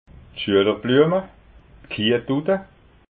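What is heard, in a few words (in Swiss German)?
"schuelerbluema", Alsatian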